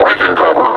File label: announce attack cover, combine suppressor